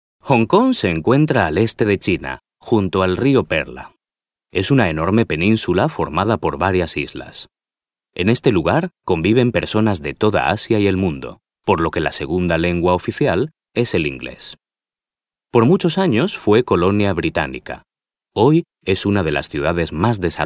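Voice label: Spanish male corporate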